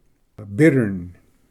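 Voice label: "bittern", English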